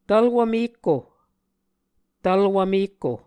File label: "tvlwv mekko", Muscogee; Mvskoke Words with Repeated Consonants; A Dictionary of Creek/Muskogee; SIL International